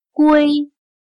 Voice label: "龟", chino

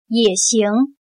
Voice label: "也行", chino

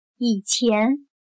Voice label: "以前", chino

